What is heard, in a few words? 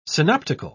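"synoptical", British English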